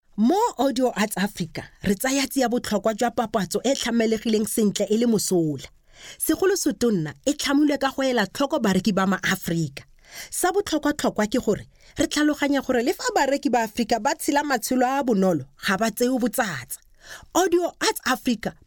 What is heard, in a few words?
Tswana Corporate